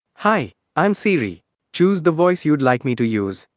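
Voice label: Indian English; en-IN; iOS Sounds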